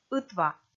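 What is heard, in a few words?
"ытва", Komi, female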